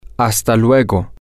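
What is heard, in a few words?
until later, spanish, male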